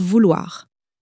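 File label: "vouloir", French, female; flashcards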